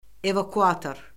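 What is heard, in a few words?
"evakuator", Azerbaijani; Orfoqrafiya Lüğəti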